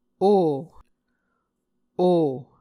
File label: "o", Muscogee